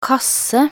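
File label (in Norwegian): "kasse", Norwegian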